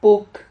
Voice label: "puk", Silabas

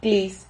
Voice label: "klis", Silabas

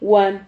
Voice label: "huan", Silabas

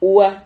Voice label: "hua", Silabas

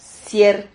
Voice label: "sier", silabas

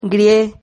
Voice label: "grie", Silabas

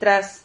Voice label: "traz", Silabas

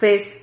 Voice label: "fez", Silabas

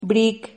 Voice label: "bric", Silabas

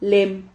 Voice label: "lem", Silabas

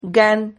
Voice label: "gan", Silabas

